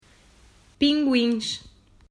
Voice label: "Pinguins", Portuguese

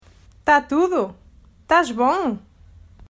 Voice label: Tá Tudo Tas Bom, Portuguese

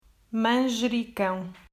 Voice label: "Manjericão", Portuguese

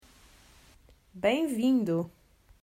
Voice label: "Bem Vindo", Portuguese

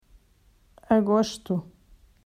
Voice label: "Ago", Portuguese

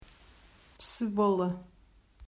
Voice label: "Cebola", Portuguese

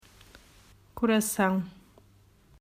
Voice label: "Coração", Portuguese

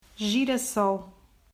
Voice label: "Girassol", Portuguese

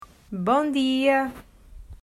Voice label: "Bom Dia", Portuguese

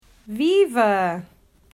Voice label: "Viva", Portuguese

